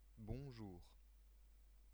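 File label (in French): "bonjour", French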